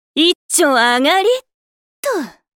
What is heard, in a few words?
Voice victory